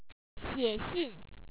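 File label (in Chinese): "xie xian", Chinese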